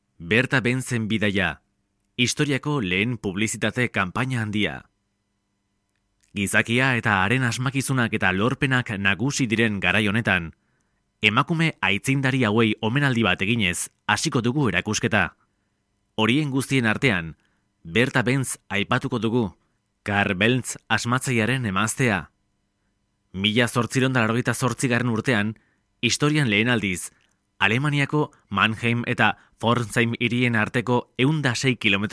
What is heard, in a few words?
basque narration